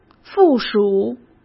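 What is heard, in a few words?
"附属", Mandarin Chinese; hsk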